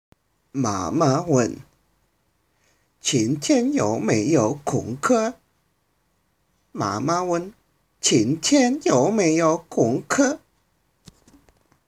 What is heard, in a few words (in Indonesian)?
Percakapan; Membaca